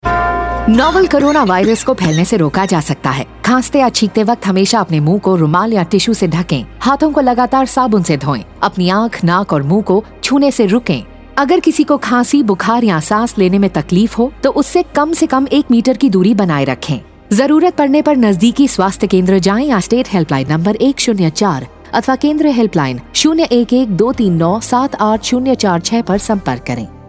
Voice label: Cough Radio; Bihar